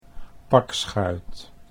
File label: "pakschuit", Dutch